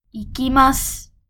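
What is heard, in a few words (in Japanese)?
"行きます", Japanese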